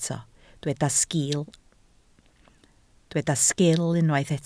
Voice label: "sgil", Welsh